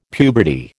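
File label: "puberty", American English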